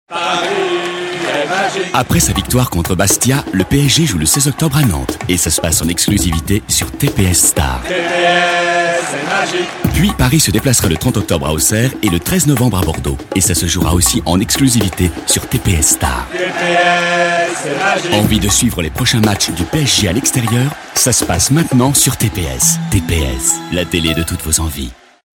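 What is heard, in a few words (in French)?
PUB TPS Star, voix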